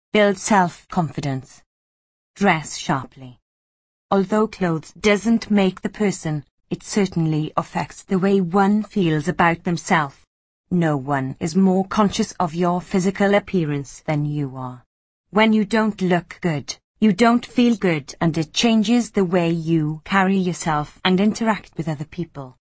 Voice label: English Female